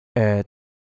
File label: "oet6", cantonese